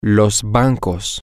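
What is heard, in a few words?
Spanish, the banks, male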